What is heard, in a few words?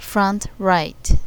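front right; Abit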